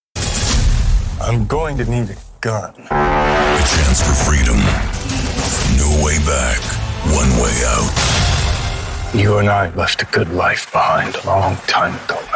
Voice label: english american movie trailers, american voice actors